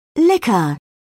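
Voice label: "liquor", British English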